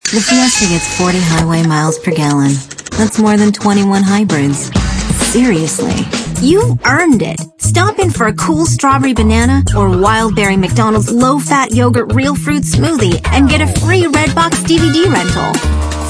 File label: female late 20s mid 30s contemp